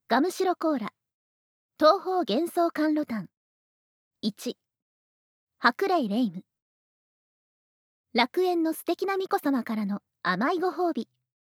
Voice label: タイトルコール

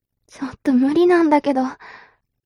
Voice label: mob女子台詞

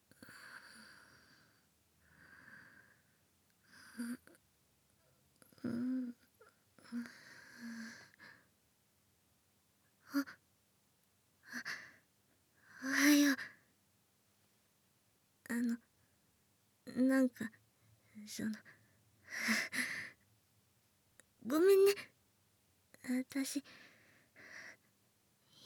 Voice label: ピロートーク

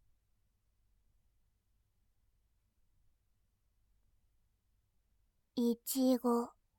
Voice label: イチゴ, ASMR; モノラル

